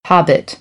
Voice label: "hobbit", American English; ɑː - ɒ

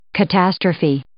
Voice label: "catastrophe", English

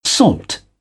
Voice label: "salt", British; ɑː - ɒ; English

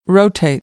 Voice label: "rotate", American English; oʊ - əʊ

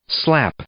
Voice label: "slap", verb, English

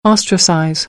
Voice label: "ostracize", American English; ɑː - ɒ

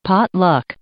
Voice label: "potluck", English

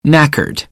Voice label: "knackered", American

